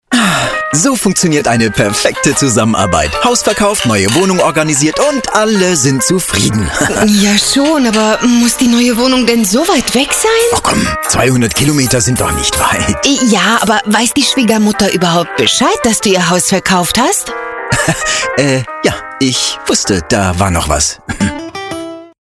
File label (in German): Spot; Engel&Völkers